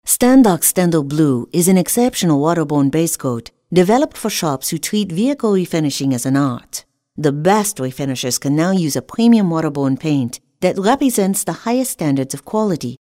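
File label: german, voice-over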